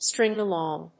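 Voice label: "string along", English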